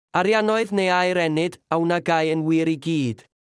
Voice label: Welsh proverb